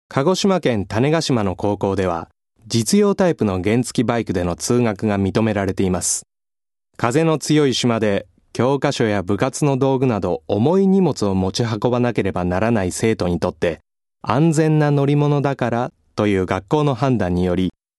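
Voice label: male voice, narration; ナレーション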